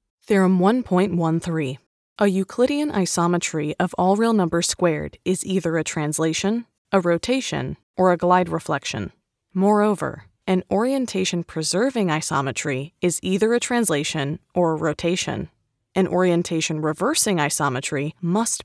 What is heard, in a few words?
Modern Geometry eLearning Sample; elearning corporate training